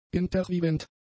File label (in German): "interviewend", german; adjectives